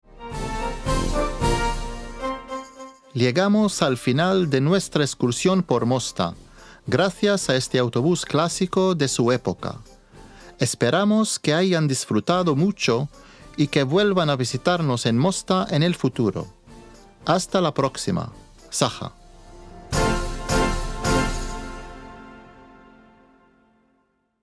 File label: CONCLUSION SPANISH